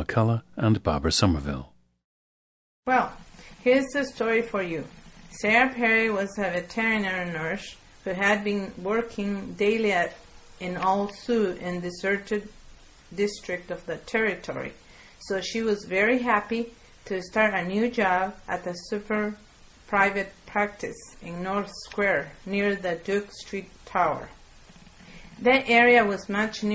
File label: guatemala, dialects